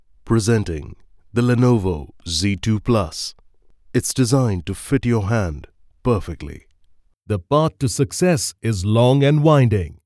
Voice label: Eng; DigitalWeb

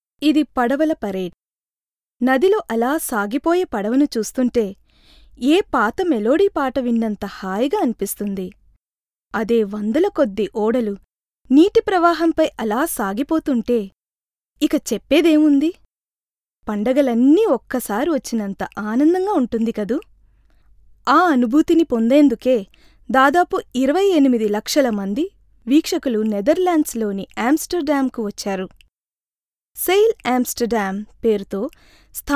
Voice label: narration, telugu

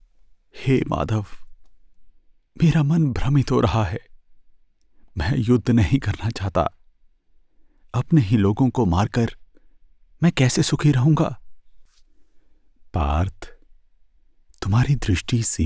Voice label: Character Modulation Hindi Mahabharat

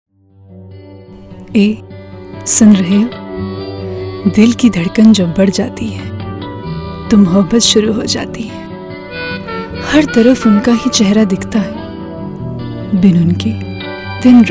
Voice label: ivr prompt hindi

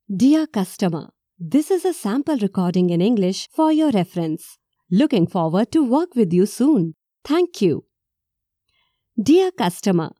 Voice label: IVR English

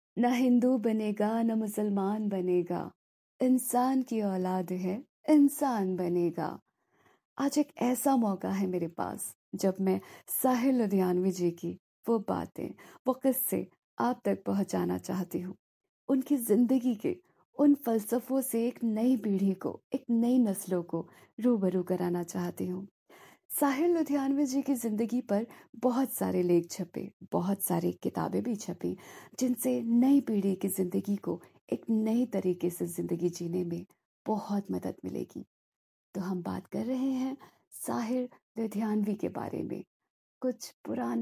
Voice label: Hindi

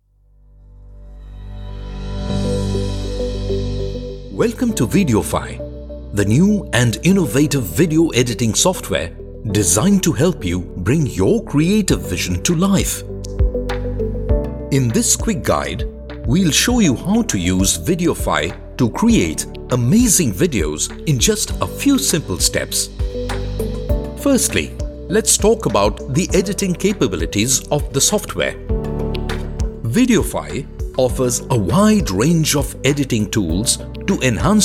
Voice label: EXPLAINER ENGLISH

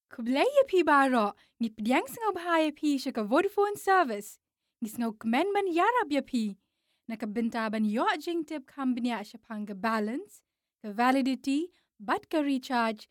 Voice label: ivr khasi

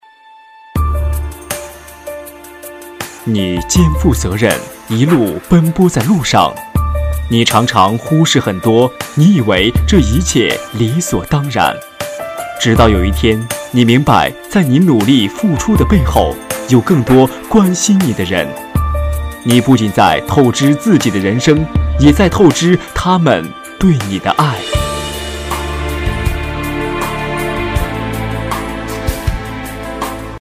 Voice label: E-learning male

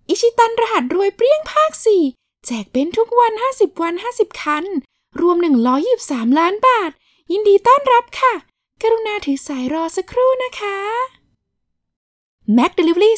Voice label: thai female elearn

